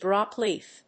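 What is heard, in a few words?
"drop leaf", English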